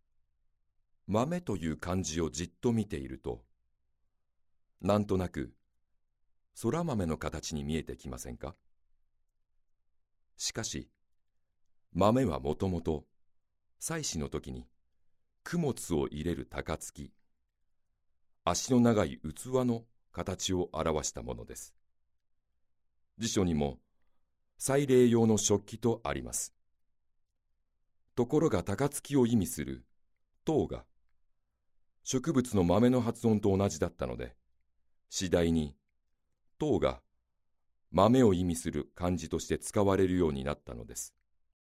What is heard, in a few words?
narration